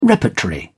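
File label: "repertory", British English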